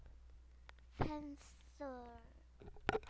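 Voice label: "pencil", spoken word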